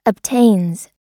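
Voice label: "obtains", American English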